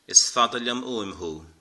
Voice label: "I miss you", Irish